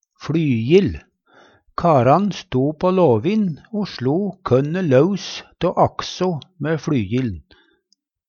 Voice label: "fLyjill", Numedalsmål; Dei to delane var festa saman med ei kort lenke/lærreim.

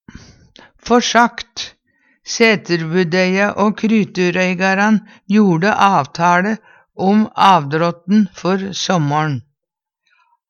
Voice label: ta kjy pa forsagt, Numedalsmål

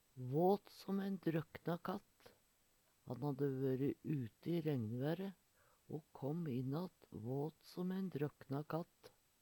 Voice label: "våt som ein drøkna katt", Numedalsmål; Han hadde vøre ute i regnvære o kåm inn att, våt som ein drøkna katt.

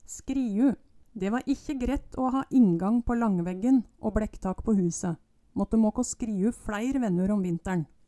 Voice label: "skriu", Numedalsmål

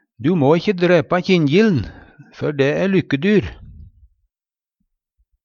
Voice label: kjinjil, Numedalsmål

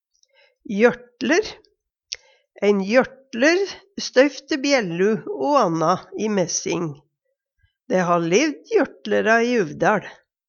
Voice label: jortler, Numedalsmål

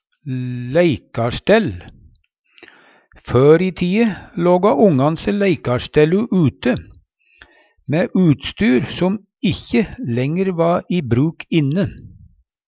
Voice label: leikarstell, Numedalsmål

